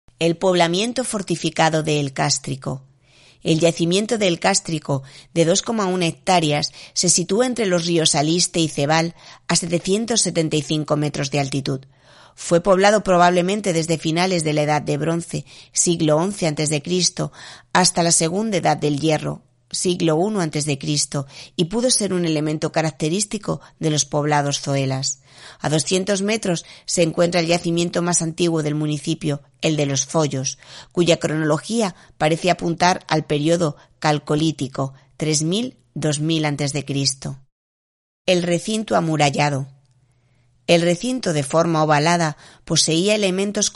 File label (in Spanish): LOCUCION